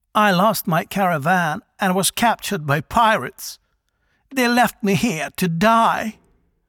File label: Voice Dialog; we are the dwarves gamerip 2016